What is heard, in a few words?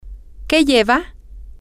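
Spanish, whats in it, female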